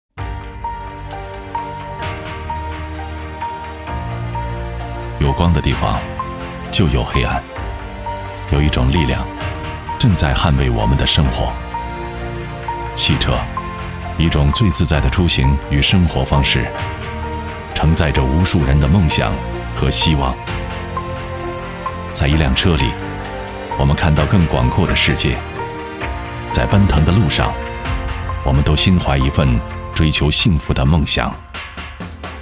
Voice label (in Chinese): 男 汽车广告 磁性品质感; 奔腾